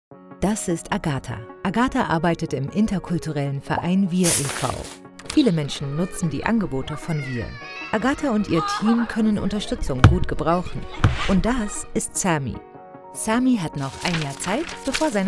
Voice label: de explainer; bfd

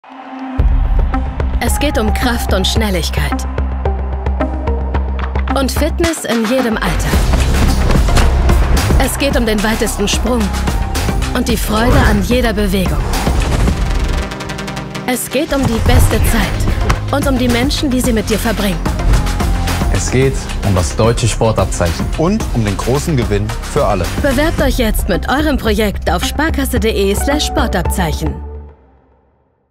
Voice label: tv spot; sparkasse; deutsches sportabzeichen

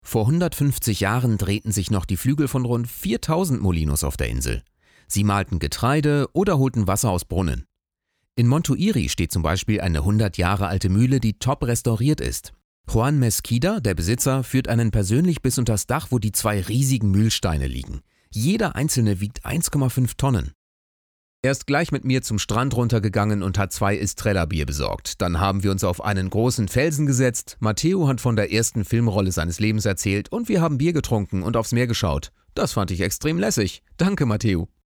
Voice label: stimmprobe voiceover

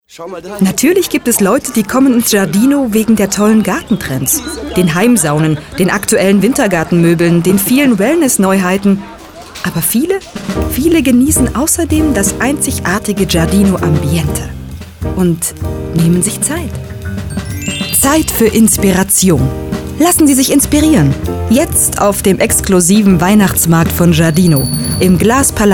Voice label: spot; giardino

